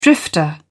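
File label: "drifter", British English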